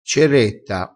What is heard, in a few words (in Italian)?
"ceretta", crespinese